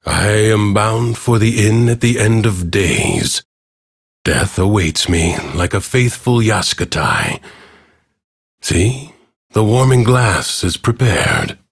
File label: Ambient Bark Sad; Duviri; Teshin